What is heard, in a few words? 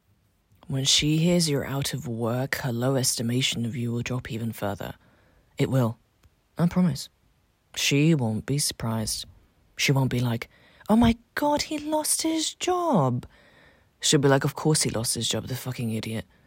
standard English accent